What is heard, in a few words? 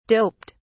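"doped", British English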